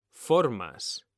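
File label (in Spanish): "formas", Spanish; mekanta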